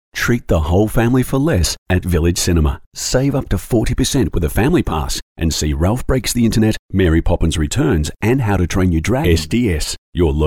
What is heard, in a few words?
Soft Sell